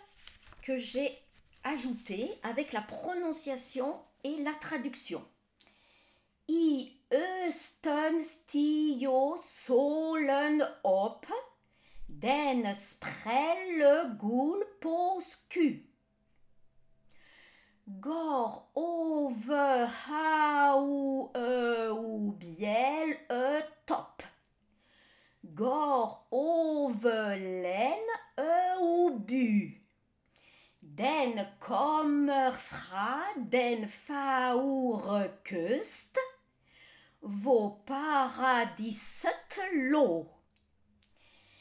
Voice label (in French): Morgensang Texte Danois lu